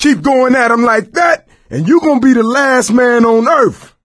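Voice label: team kill accident, voice, male; Left 4 Dead 2; coach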